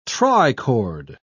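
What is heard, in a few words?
"trichord", British English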